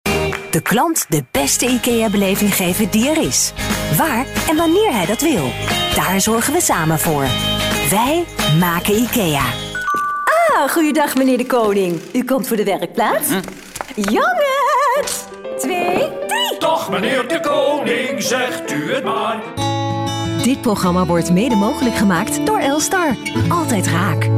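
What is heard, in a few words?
comercial, Dutch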